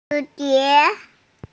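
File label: "hu2die2", Mandarin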